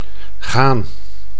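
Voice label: "gaan", Dutch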